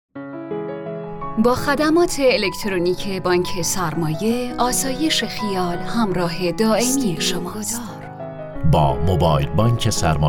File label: commercial